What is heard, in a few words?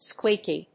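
"squeaky", English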